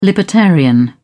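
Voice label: "libertarian", British English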